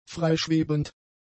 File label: "freischwebend", German; adjectives